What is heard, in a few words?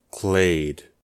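"clade", English, US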